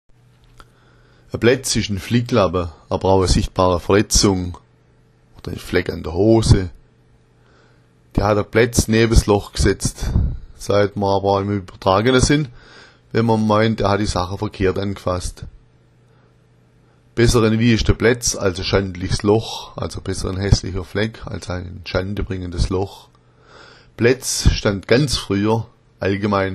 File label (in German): bletz, schwaebisch